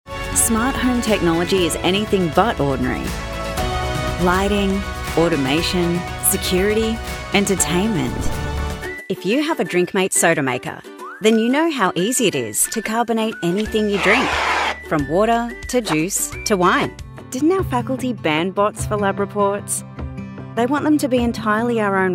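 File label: Explainer Australian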